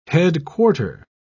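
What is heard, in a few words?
"headquarter", British English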